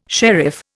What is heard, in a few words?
"sheriff", Indian